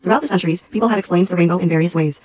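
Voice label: female speech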